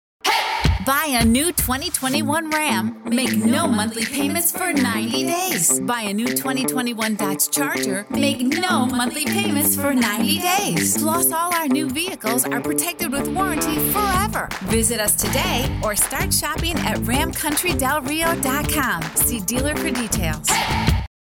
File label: VO Car Spot